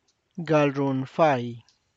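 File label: tlacochahuaya, Zapotec; fails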